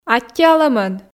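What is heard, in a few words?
"аттьӧалӧмӧн", Komi, female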